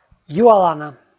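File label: "юалана", Komi, male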